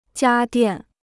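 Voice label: "家电", Chinese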